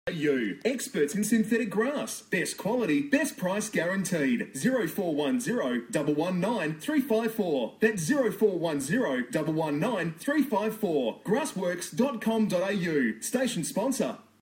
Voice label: radio AD; grassworks